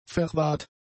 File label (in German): "verwahrt", German; adjectives